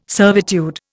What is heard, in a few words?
"servitude", Indian